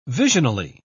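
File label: "visionally", English, British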